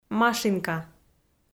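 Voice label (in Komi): "машинка", Komi-Zyrian, female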